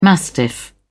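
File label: "mastiff", British English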